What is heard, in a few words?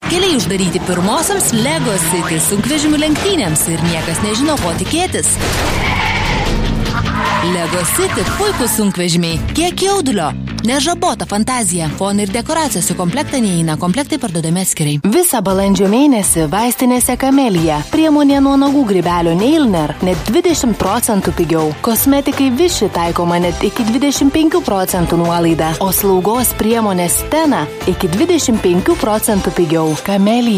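Lithuanian female voice; lietuviu; moteris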